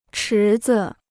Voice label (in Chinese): "池子", Chinese